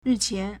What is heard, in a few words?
"ri4 qian2", Chinese